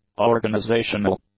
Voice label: "organizational", English; adjectives